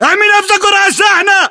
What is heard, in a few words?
order cover truck, battle chatter, english